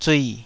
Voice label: "zui3", Taiwanese

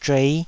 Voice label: "zhuei5", Taiwanese syllable

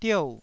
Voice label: "diou4", Taiwanese

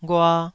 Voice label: "ghua2", Taiwanese syllable

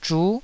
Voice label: "zhu9", taiwanese

